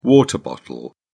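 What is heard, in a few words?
"water bottle", British English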